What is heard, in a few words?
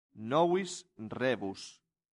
"novis rebus", latin; santillana